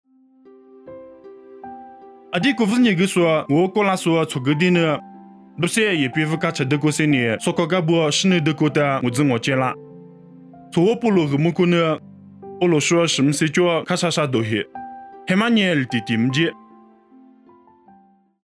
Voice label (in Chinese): 凉山彝语; 地中海三馆